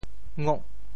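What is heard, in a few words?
"ngok8", Teochew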